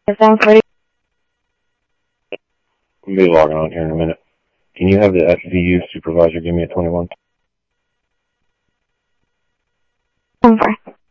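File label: Sheriff East County, scanner audio trunk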